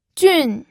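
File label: "jun", Mandarin Chinese, fourth tone; pinyin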